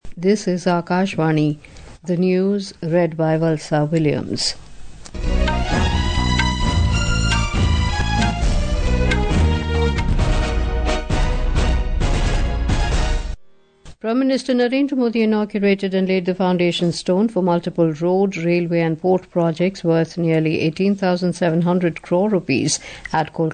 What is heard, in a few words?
English; Larsen and Toubro Limited for acquisition of 3 cadet training ships